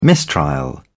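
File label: "mistrial", British English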